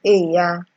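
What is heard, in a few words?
"eeya", Nigerian Pidgin, female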